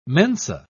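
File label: "mensa", British English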